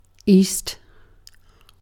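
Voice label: "east", British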